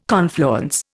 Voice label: "confluence", Indian